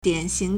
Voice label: "dian3xing2", Chinese

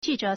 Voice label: "ji4 zhe3", Chinese

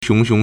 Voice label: "xiong2xiong2", Chinese

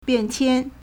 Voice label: "bian4qian1", Chinese

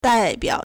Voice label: "dai4 biao3", Chinese

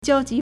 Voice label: "jiao1 ji2", Chinese

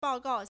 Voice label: "bao4gao4", Chinese

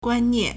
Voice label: "guan1nian4", Chinese

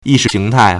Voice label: "yi4 shi2 xing2 tai4", Chinese

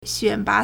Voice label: "xuan3ba2", Chinese

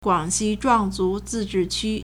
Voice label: "guang3xi1zhuang4zu2zi4zhi4qu1", Chinese

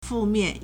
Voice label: "fu4 mian4", Chinese

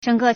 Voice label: "zheng3ge4", Chinese

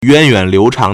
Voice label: "yuan2yuan3liu2chang2", Chinese

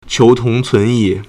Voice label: "qiu2 tong2 cun2 yi4", Chinese

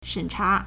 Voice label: "shen3cha2", Chinese

